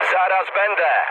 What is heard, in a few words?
near waypoint mood med, speech pol; War Thunder